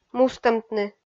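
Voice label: "мустӧмтны", Komi, female; kpv audio dict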